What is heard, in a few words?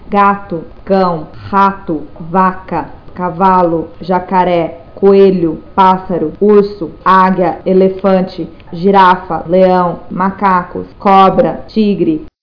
portuguese animals